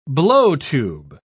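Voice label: "blowtube", British English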